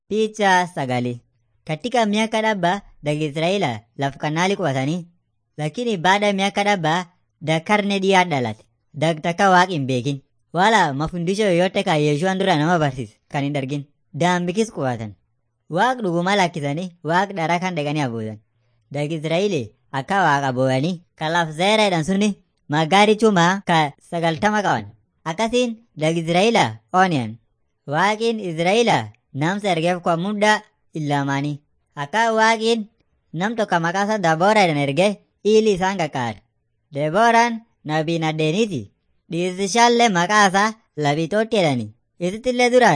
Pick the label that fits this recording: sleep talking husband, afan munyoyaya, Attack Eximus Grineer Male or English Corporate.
afan munyoyaya